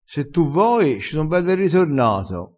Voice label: "se tu voi", dialettale

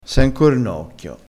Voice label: "cornocchio", crespinese

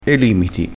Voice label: "limiti", crespinese

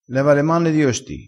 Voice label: "leva", crespinese, vocabolario dialettale

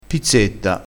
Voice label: "pizzetta", crespinese

